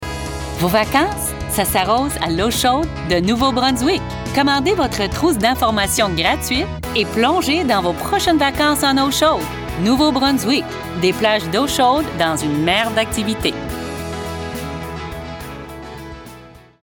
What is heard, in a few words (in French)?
French commercial